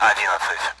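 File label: Speech Sound Russian Common AWACS Numbers; DCS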